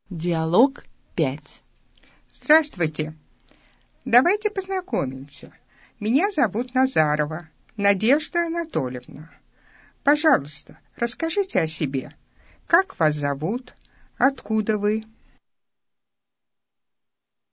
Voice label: dialog, russian